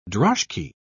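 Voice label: "droshky", British English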